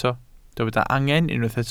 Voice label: "angen", Welsh

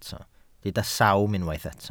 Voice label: "sawm", welsh

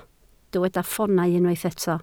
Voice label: "ffoonau", Welsh

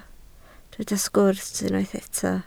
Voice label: "sgwrs", Welsh

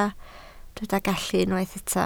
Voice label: "gallu", Welsh

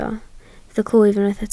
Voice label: "clwyf", Welsh

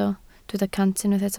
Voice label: "cant", Welsh

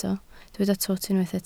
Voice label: "twt", Welsh

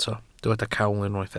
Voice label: "cawl", Welsh

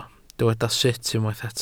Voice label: "sut", Welsh

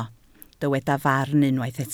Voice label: "farn", Welsh

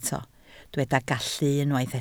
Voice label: "gallu", Welsh

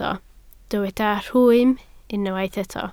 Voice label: "rhwym", Welsh

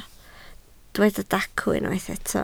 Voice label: "dacw", Welsh

